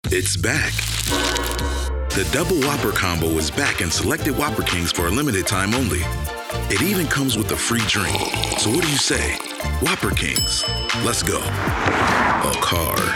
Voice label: male commercial